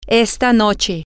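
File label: tonight, Spanish, female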